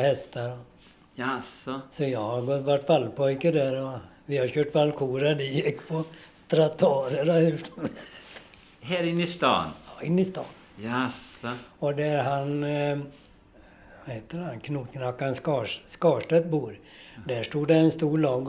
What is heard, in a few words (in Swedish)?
Skara, dialekter; Svenska sagor; Folkminnen